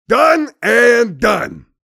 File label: mobster cheers